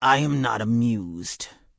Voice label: Orc Female Standard Pissed